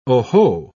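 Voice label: "oho", British English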